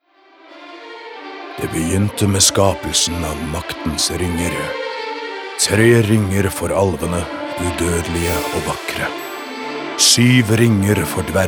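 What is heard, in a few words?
Norwegian commercial movie trailer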